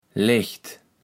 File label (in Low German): "Lecht", kaevels platt